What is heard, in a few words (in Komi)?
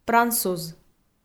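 "пранцуз", Komi, female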